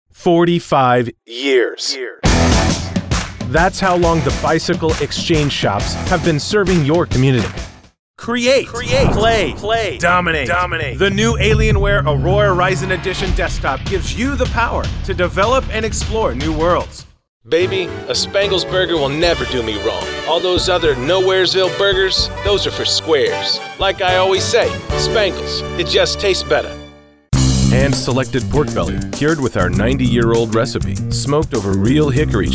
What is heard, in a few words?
Commercial